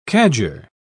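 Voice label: "cadger", British English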